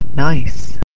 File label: "nice", English